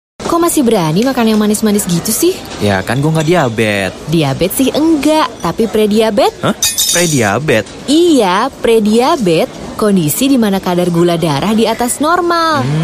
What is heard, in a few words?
Bahasa Indonesia Radio Spot; AptaGlukovit